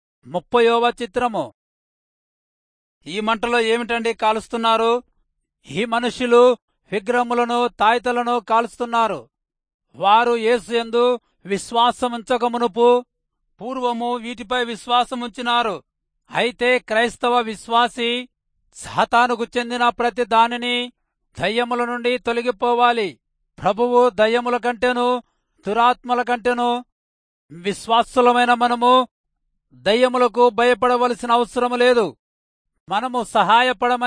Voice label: telugu rayalseema; good news

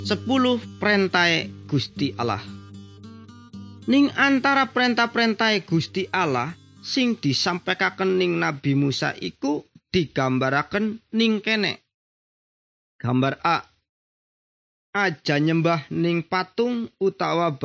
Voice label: good news jawa indramayu, javanese bible

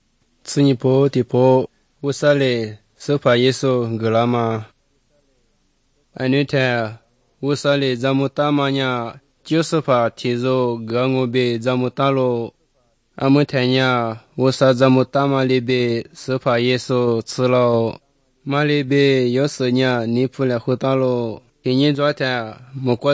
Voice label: good news lisu southern, drama

